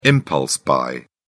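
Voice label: "impulse-buy", British English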